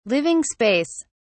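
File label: "living space", British English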